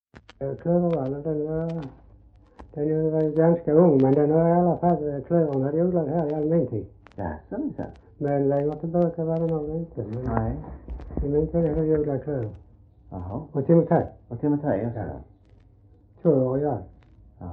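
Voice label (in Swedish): Röstånga Skåne, Svenska sagor dialekter; Folkminnen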